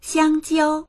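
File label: "xiang1jiao1", Mandarin Chinese; xiāngjiāo; 香蕉; バナナ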